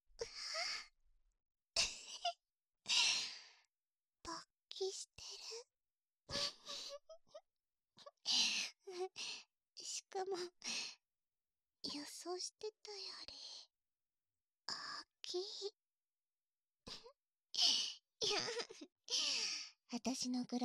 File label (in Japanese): くちゅ音無し, ＳＥ差分あり; アイドルのおっぱい吸いながら、シコシコしてみて?; オナニー指導